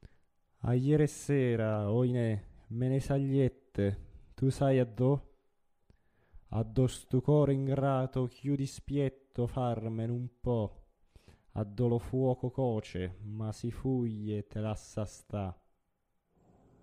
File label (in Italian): espressivo; Denza; Funiculi funicula